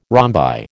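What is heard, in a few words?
"rhombi", American English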